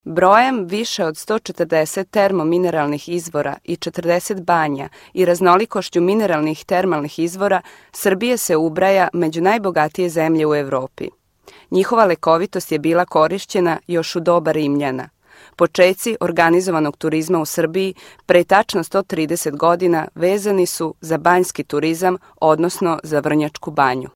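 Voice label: serbian female voice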